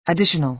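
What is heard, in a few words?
"additional" American English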